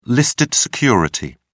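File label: "listed security", British English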